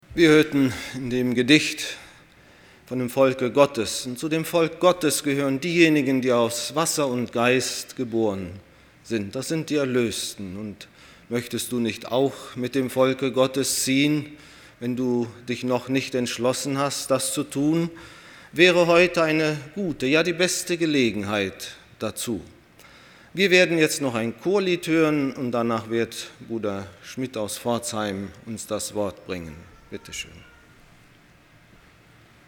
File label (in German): Ansage; Der breite und der schmale Weg